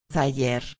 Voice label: "taller", espanhol

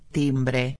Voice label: "timbre", espanhol

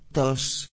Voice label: "tos", espanhol

